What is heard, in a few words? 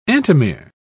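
"antimere", British English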